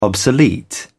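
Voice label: "obsolete", British English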